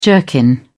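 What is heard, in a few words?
"jerkin", British English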